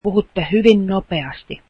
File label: you speak very fast, finnish